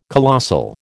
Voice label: "colossal", American